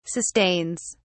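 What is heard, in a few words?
"sustains", British English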